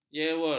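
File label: "jewer", Malay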